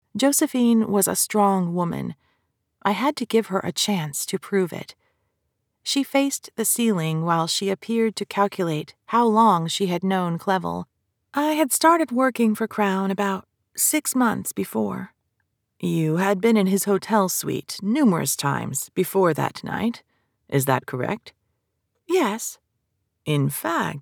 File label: 3rd Person, MF Dialogue Narrator; Implied Consent